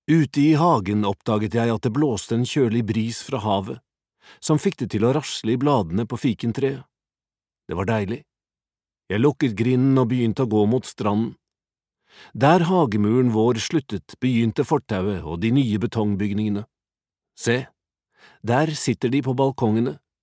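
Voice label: Norwegian audio book, male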